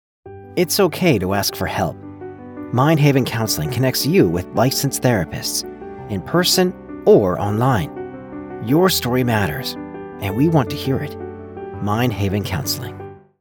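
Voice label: with music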